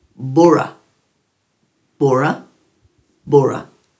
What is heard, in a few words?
"bura", Dhurga